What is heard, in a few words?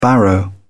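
"barrow", British English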